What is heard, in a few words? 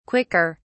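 "quicker", British English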